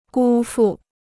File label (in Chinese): "辜负", Chinese